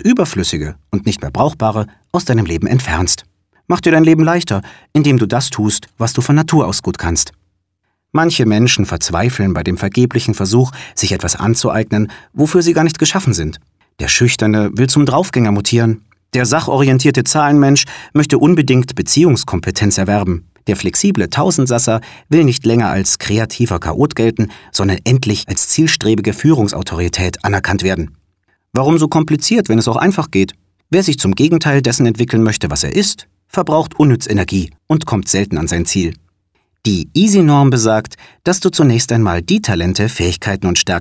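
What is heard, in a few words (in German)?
Hörbuch; sprecher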